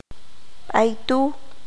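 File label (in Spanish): "allá", qanjobal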